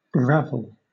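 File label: "ravel", British English; pronunciations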